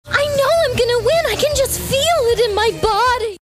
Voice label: kooky